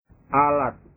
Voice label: "alat", bahasa